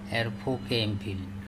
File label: hit on the head with the purpose to kill Er pHoke empHil, andamanese